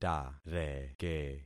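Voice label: "tareke", concatenated syllables; Affixing experiment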